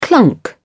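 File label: "clunk", English, British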